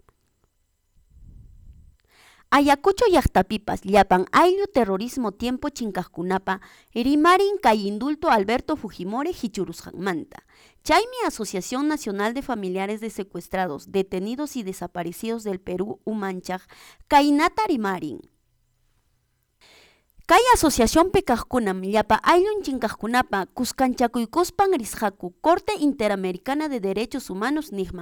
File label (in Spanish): VOZ EN OFF; ayacucho; QUECHUA PRONUNCIAMIENTO SOBRE ALBERTO FUJIMORI; ANULACION INDULTO FUJIMORI